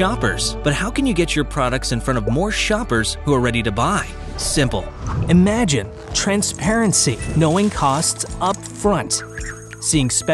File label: E-Learning